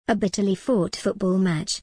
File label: en gb a bitterly fought football match